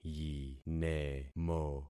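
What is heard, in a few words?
"yinemo", concatenated syllables; Affixing experiment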